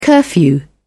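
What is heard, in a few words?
"curfew", British English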